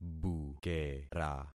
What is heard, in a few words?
"bukera", concat syllables; Affixing experiment